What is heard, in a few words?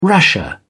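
"rasher", British English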